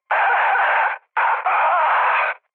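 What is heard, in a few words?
Turkish damaged pilot, mood med, speech; War Thunder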